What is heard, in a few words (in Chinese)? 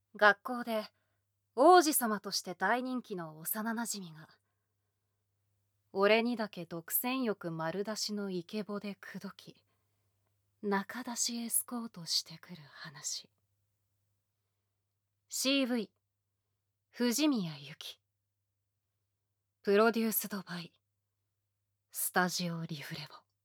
標題朗讀